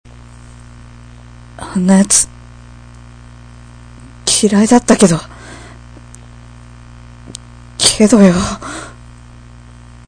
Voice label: dislike m